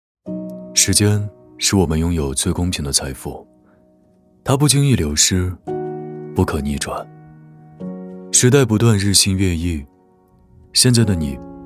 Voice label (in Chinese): 男 走心旁白; 绿活美地